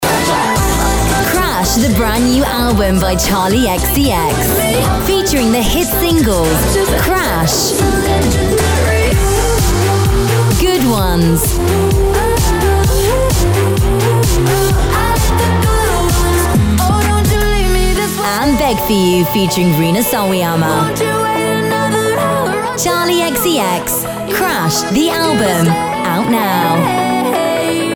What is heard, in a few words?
female English music promo compilation